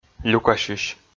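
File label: "люкасьысь", Komi, male; kpv audio dict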